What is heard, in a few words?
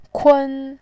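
"kun1", Mandarin Chinese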